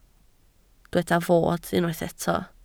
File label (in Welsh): "fod", Welsh